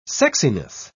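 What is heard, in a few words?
"sexiness", British English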